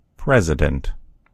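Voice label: "president", American English; gcompris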